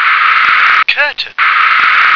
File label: "curtain", English